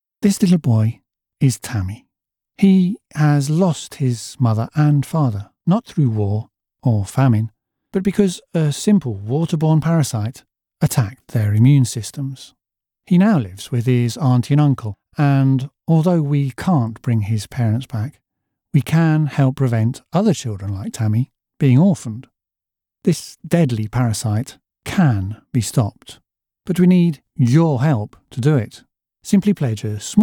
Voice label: Charity Appeal: Articulate Genuine British Voice Actor Real Person; Narration